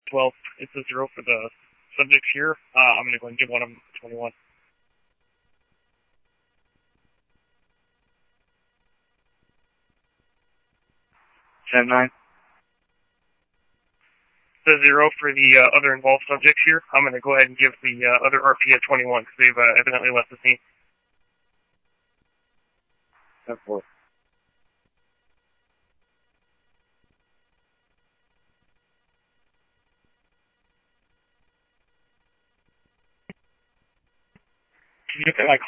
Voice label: PD Police, scanner audio